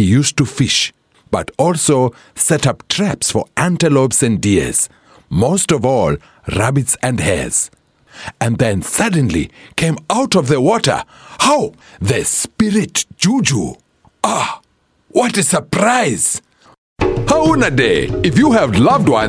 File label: African English African Dialects, English South African Male